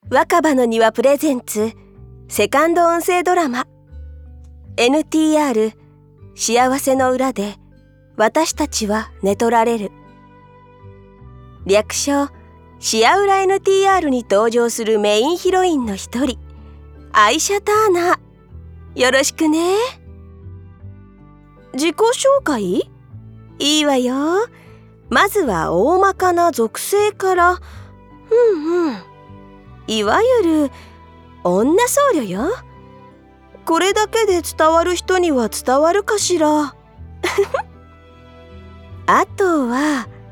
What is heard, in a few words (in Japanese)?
タイトルコール＋自己紹介; アイシャVer